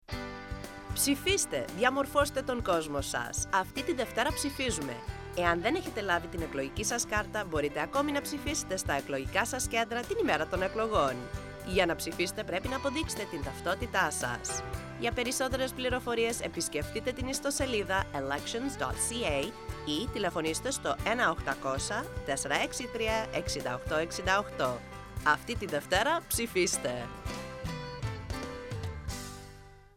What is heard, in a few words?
election greek